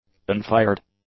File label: "unfired", english; adjectives